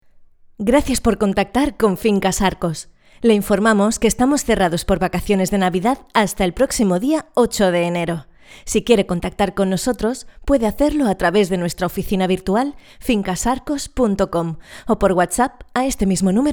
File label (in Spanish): IVR Centralita telefono; Arcos